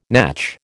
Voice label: "natch", American